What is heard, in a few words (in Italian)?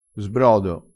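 "sbrodo", dialettale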